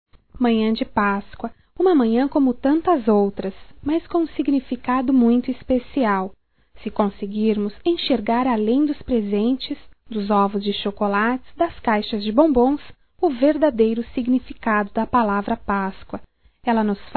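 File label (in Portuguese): PASCOA FAMILIA feminino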